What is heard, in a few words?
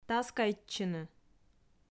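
"таскайтчыны", Komi, female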